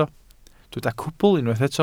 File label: "cwbl", welsh